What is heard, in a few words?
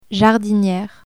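"jardiniere", French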